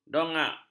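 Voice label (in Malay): "dongak", Malay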